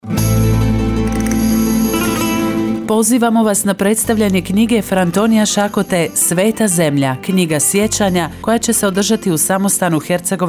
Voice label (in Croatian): Reklama; Predstavljanje knjige fra Antonio Sakota